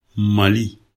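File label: "mali", Bambara; animaux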